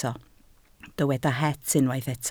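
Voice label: "het" Welsh, spoken word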